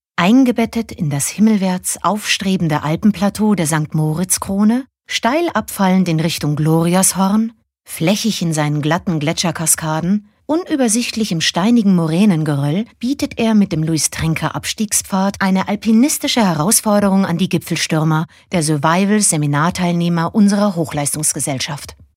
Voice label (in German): Sprechprobe Kommentar